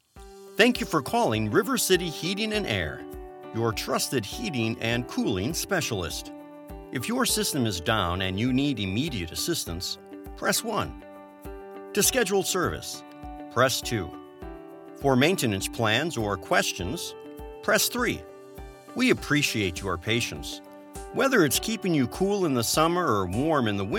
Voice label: IVR; River City Air; telephony ivr